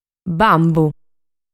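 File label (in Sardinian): "Bambu", Sardinian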